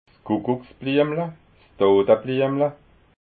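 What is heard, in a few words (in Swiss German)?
"kuckucksbliemla", Alsatian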